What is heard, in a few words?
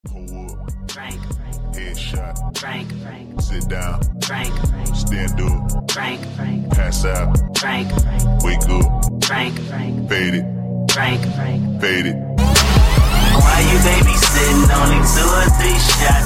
Is Calling Rap